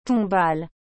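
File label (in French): "tombal", French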